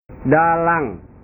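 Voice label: "dalang", Indonesian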